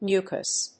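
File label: "mucous", English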